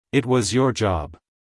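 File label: "It was your job", American English, male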